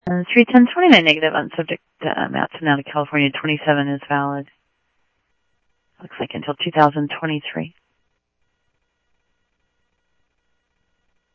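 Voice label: Lakes Sheriff Lake Patrol, scanner audio trunk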